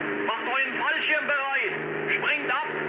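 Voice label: German bailout mood high, speech; War Thunder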